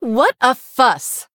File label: Female Human Attack; en